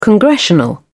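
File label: "congressional", British English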